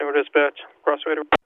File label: La Crosse Radio